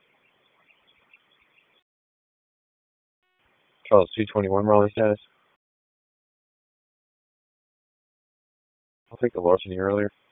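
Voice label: Raleigh Police Northeast District Dispatch; wake; p25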